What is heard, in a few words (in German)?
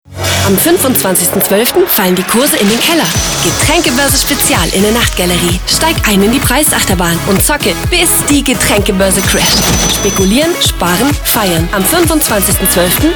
werbung getraenkeboerse